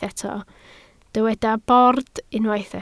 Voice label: "bord", Welsh